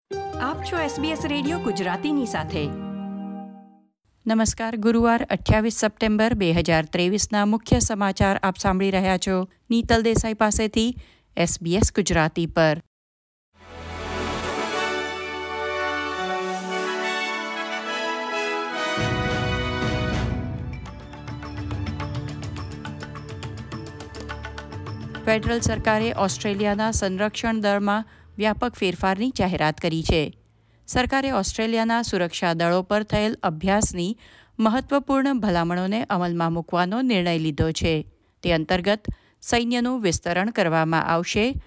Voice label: gujarati news bulletin; SBS Gujarati News Bulletin 28 September 2023

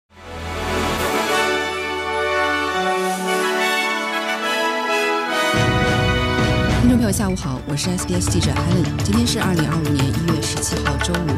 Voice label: mandarin newsflash; 犹太社区领袖Alex Ryvchin旧宅在反犹事件中被破坏; SBS Chinese; SBS新闻快报

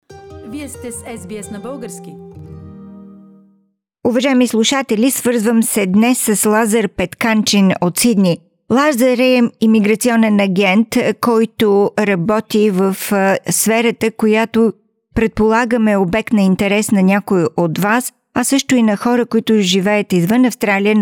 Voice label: bulgarian; Нови промени в имиграционните закони на Австралия свързани с пандемията от КОВИД-19; SBS Bulgarian

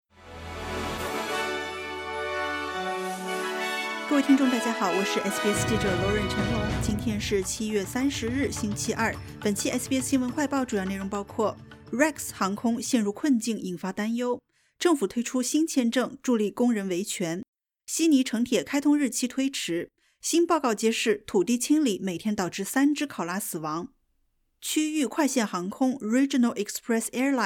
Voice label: mandarin news flash; Rex航空陷入困境引发担忧; SBS Chinese; SBS新闻快报

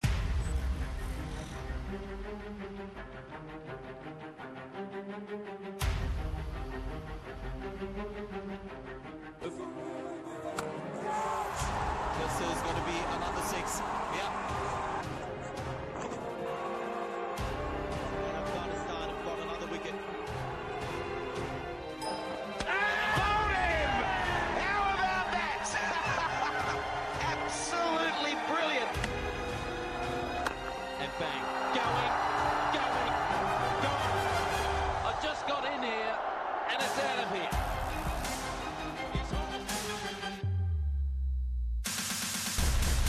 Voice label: pashto; Did you know that Australian cricket team his traveled for forty days to play England?; SBS Pashto

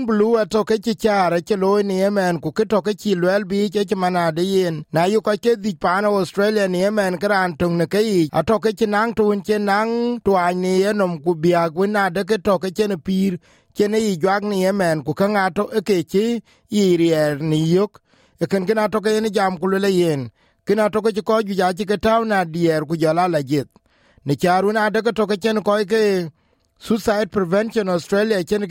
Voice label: dinka, podcast; Beyond Blue; SBS Dinka

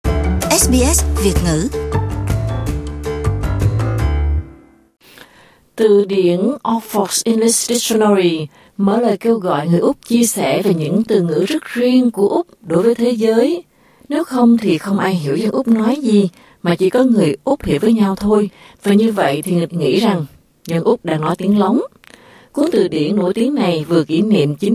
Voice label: vietnamese; SBS Vietnamese; A fair dinkum search for frogcakes and pie floaters

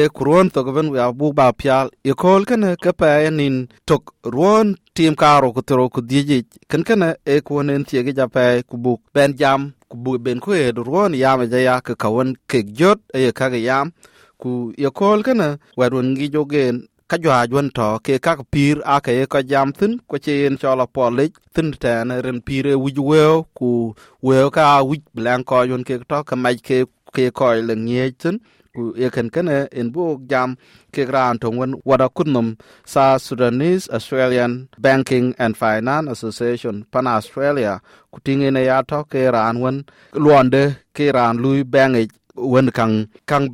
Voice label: dinka, podcast; SBS Dinka

